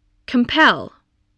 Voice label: "compel", english